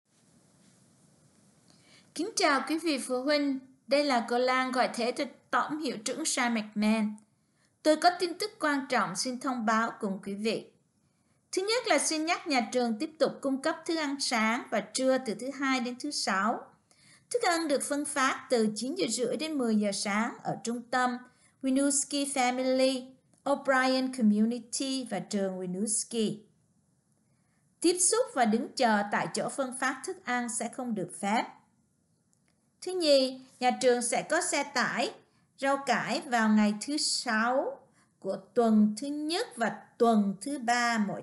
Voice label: vietnamese